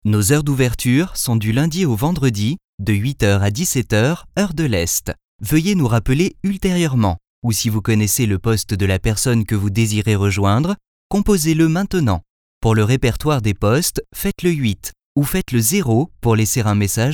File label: Phone prompt